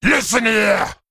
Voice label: Male Worgen Attack